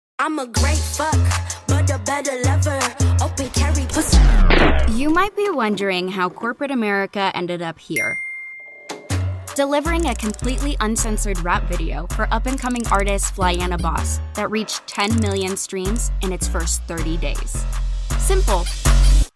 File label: Narration